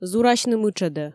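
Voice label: "зурасьны мытшӧдӧ", Komi, female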